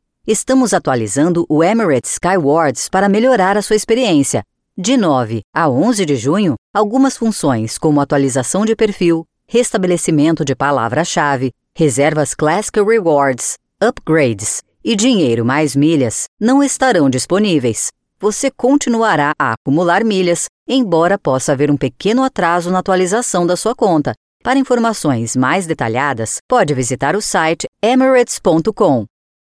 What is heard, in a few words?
IVR; Emirates Airlines